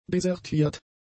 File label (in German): "desertiert", german; adjectives